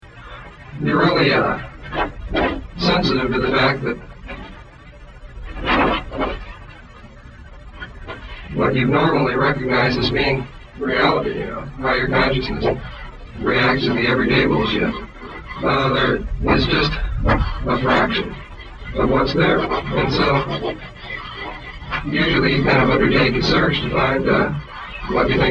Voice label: dialogue distort halftone pattern